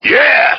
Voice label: "yeah" gruff man

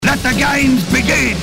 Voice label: let the games begin male; Voice; introductions

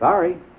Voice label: sorry, announcer; Voice

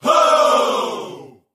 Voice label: "ho" group of men; ooh ahh; ho hoo woo

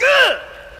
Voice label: "ew", male

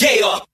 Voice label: "yeah", group of men

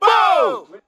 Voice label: "bo", group of men; ooh ahh

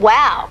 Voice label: "wow" girl

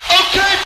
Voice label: "okay", expletives

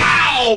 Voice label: ow male robot, voice; ooh ahh; ow ouch

